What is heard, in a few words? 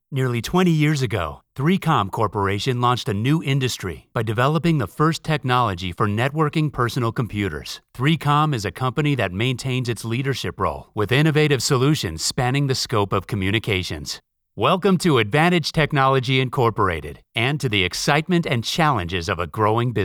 narration